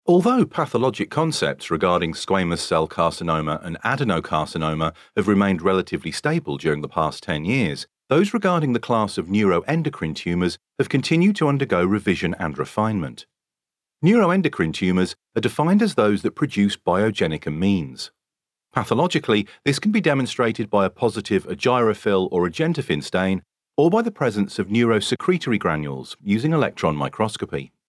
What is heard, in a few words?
UK Medical Narration